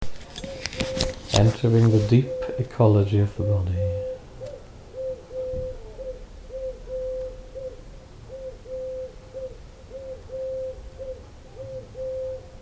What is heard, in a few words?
meditation